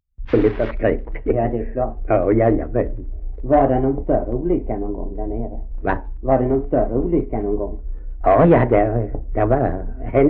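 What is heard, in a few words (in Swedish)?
Ausås Skåne, Svenska sagor dialekter; Folkminnen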